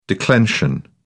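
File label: "declension", British English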